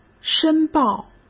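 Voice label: "申报", Mandarin Chinese; chinese-flashcards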